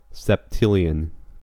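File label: "septillion", American English; pronunciations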